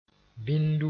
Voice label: "bĩndu", moore; excrement

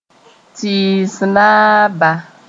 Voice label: "tɩɩs naaba", moore

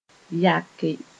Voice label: "yake", Moore

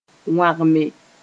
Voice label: "wãgme", Mooré; dry out

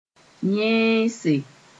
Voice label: "yẽese", Mooré

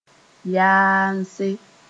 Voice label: "yaamse", Moore

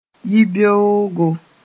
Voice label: "yibeoogo", mos-en; morning

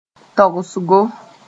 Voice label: "togsgo", Mooré

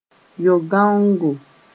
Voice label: "yo-gãongo", Mooré; foreskin uncircumcised

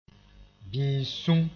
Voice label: "bĩis-sũm", moore

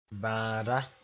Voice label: "bãada", Mooré; sick person

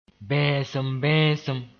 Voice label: "bẽesem-bẽesem", Mooré

